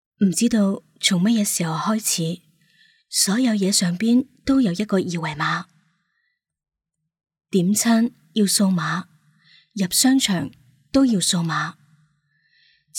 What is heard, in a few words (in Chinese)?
女 粤语旁白